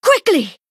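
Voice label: Female Draenei Attack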